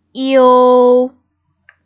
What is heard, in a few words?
"iou", Pinyin Finals